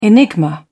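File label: "enigma", British English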